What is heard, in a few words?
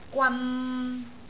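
"gwan3", Cantonese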